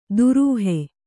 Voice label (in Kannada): "ದುರೂಹೆ", Kannada